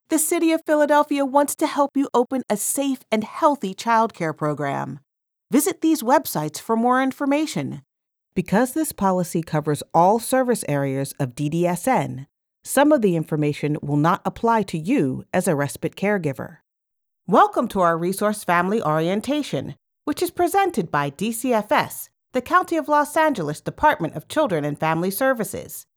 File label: eLearning